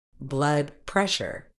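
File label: "blood pressure", British English; blʌdˈprɛʃə; blud-PRESH-uh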